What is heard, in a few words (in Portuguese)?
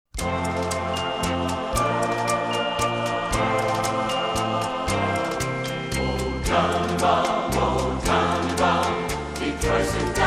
Feliz Natal, F